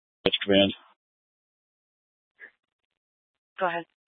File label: Incident Tac 3, scanner